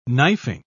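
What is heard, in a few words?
"knifing", English, British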